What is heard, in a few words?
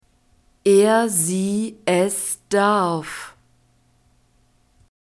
"er sie es darf", German